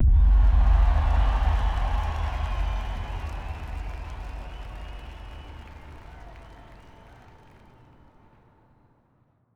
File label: grand speech; Stellaris; overlord